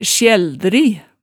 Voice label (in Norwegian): "sjældri", hemsedalsmaalet